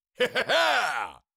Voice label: Cartoon lion voice, "yeah"